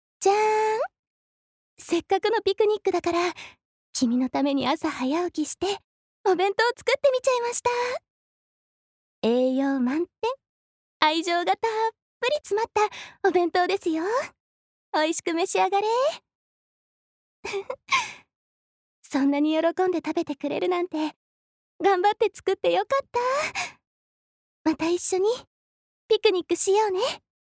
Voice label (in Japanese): アニメ